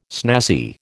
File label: "snazzy", American